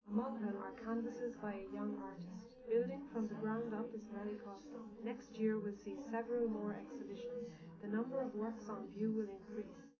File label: female liverec; SiSEC11; underdetermined